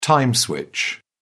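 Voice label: "time switch", British English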